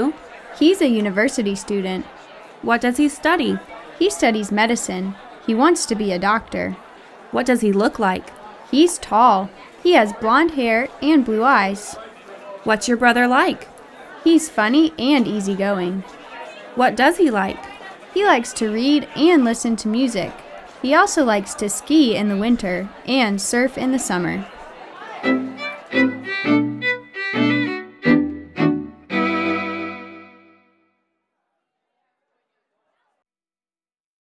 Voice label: Conversation, English; Unit Ten